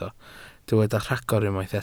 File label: "rhagor", Welsh